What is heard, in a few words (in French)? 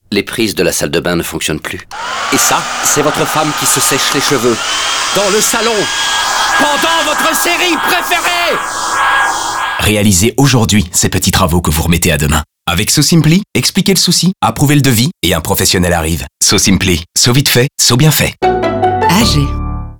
Radio Seche Cheveux, FR; So-Simply